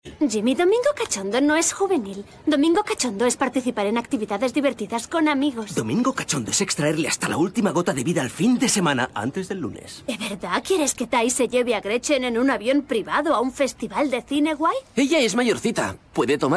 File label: female spanish acting comercial